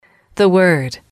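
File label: "the word", English, female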